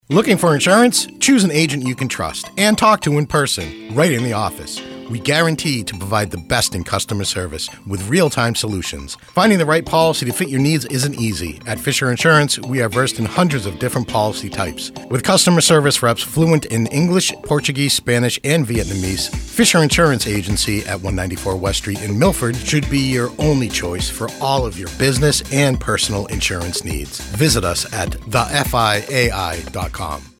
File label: Radio Ad; FISHER INSURANCE